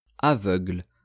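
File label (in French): "aveugle", French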